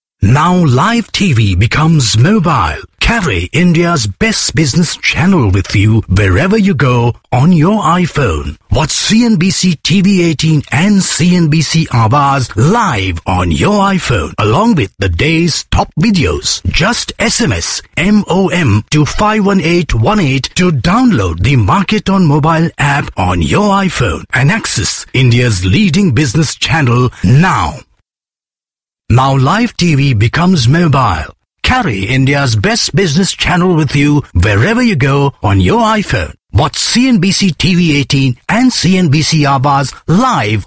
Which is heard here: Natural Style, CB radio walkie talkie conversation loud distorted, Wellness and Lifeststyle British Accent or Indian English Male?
Indian English Male